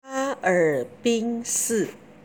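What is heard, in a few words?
"haerbin", Chinese; 哈尔滨市; Hā ěr bīn shì; 黑龙江省; Hēi Lóng Jiāng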